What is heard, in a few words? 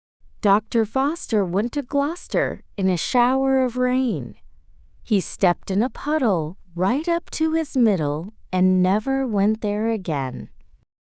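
female us; Doctor Foster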